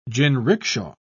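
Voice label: "jinrikisha", British English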